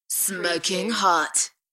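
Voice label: British female Smokin hot; beanstalk audio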